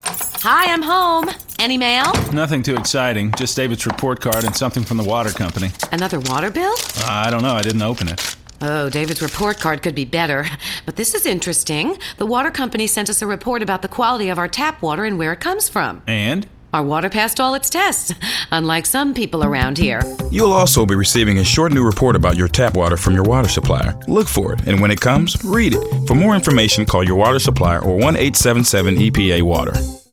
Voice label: english; report card